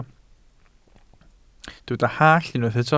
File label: "hallt", Welsh